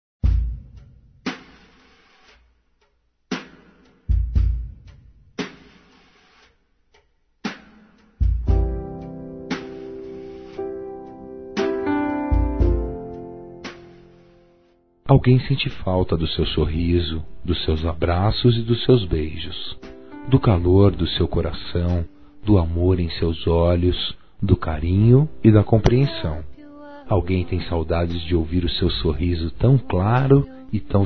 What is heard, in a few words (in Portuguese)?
Romântica Masculino; Telemensagens 2017; Românticas